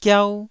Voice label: "giau1", Taiwanese syllable

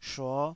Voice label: "shuo5", taiwanese Syllables

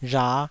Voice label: "rha5", taiwanese

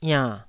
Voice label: "iann3", Taiwanese syllable

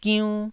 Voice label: "giunn1", taiwanese

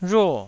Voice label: "rhuo4", taiwanese

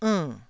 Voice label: "ernn4", Taiwanese

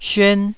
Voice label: "syuan1", Taiwanese

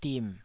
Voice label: "dim3", Taiwanese

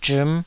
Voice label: "zhim5", taiwanese

